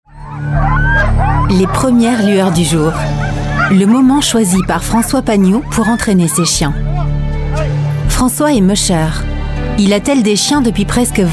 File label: french voice over female documentary